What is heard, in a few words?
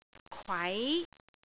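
"kwai2", Cantonese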